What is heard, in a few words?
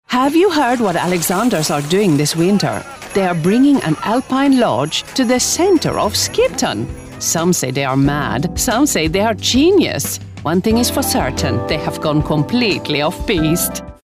scandinavian accent